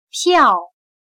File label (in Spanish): "漂", Chino